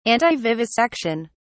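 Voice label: "antivivisection", British English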